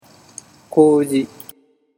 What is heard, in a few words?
"koji", Japanese